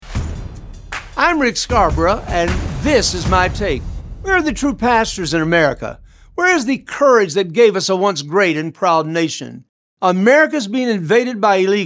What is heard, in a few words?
Radio Spot